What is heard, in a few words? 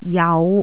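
"jau5", Jyutping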